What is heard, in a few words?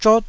"zhot4", taiwanese